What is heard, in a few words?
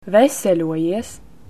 get well soon, Latvian